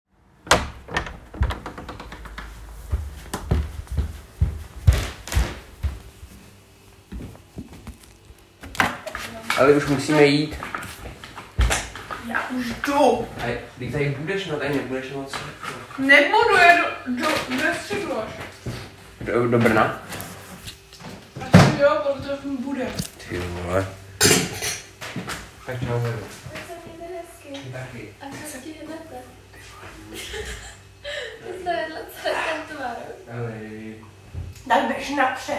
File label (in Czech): Reportazni situace